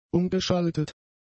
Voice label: "umgeschaltet", German; adjectives